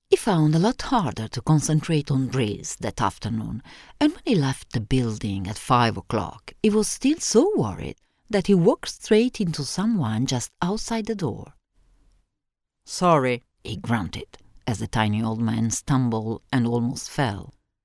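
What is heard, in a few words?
Italian female voice over, English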